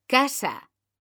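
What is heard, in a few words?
"casa", Spanish, female; mekanta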